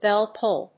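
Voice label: "bellpull", English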